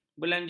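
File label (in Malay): "belanjawan", Malay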